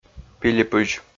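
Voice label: "пилипызь", Komi, male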